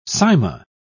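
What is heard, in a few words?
"cyma", British English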